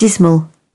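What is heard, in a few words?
"dismal", British English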